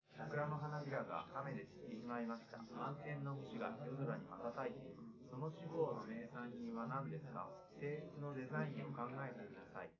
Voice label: male4 liverec 250ms 5cm sim